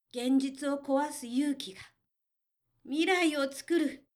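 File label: female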